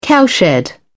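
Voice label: "cowshed", British English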